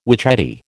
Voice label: "witchetty", American